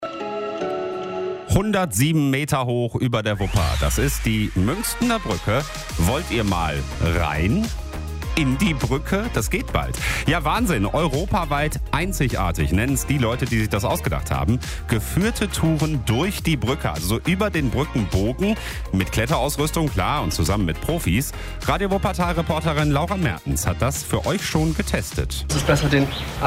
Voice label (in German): reportage; reportage muengstener bruecke